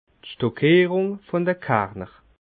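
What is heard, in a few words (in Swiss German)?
"stockierung", Alsatian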